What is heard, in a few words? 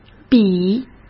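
"笔", Mandarin Chinese; chinese flashcards; hsk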